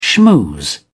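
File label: "schmooze", British English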